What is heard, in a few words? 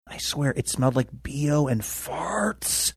whispering